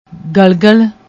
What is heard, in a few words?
"galgal", Punjabi; ਗਲਗਲ